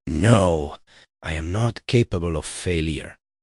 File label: upset; fertig classic games; balam